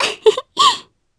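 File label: Vox Happy Japanese; Reina; kingsraid; voices heroes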